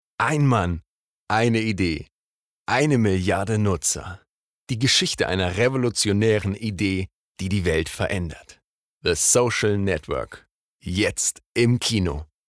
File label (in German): Werbung Social Network